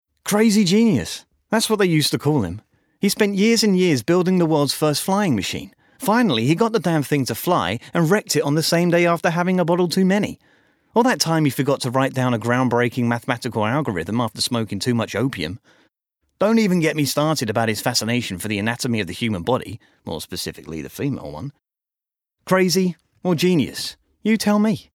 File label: male american english comercial